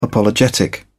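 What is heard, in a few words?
"apologetic", British English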